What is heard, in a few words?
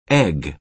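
"egg", American English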